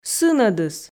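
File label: "сынӧдыс", Komi, female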